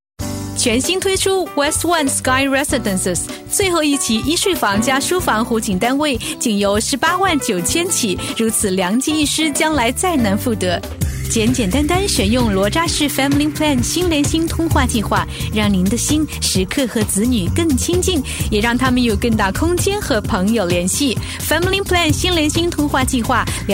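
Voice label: female, Mandarin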